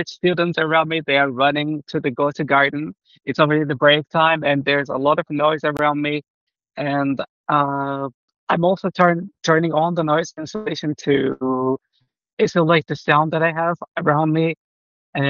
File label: mic test; Huawei FreeBuds Pro 5